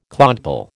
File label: "clodpoll", American